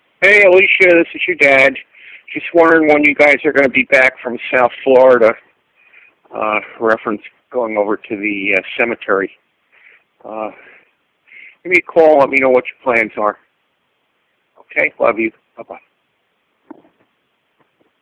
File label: daddy voicemail